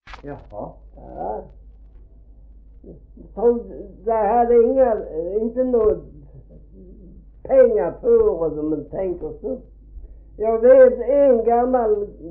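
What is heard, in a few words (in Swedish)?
Gråmanstorp Skåne, Svenska sagor dialekter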